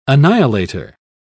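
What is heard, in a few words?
"annihilator", British English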